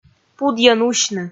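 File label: "пудйӧн усьны", Komi, female; kpv audio dict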